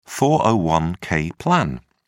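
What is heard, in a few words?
"401-k plan", British English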